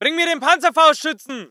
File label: voice ger infantry